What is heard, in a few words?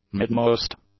"midmost", english; adjectives